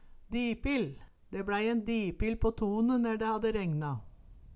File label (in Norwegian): "dipil", Numedalsmål